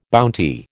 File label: "bounty", American